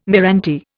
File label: "meranti", Indian